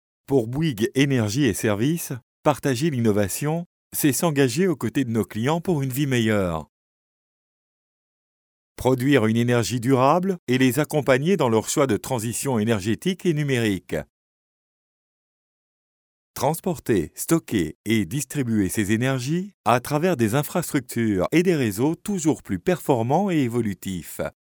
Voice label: male french voice over talent narrative